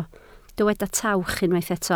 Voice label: "tawch", Welsh